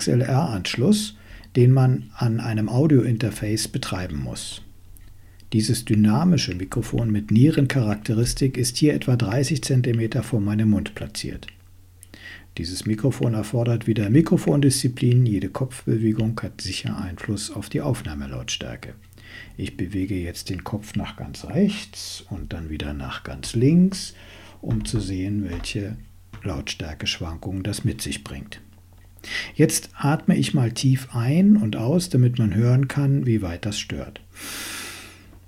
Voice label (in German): Mikrofontest Behringer XM8500